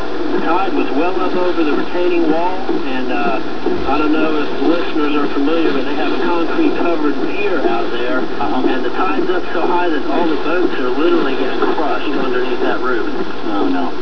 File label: a caller from the Bradley Creek area explaining how boats are currently being crushed; 1996; Viewers from all over SE NC were calling in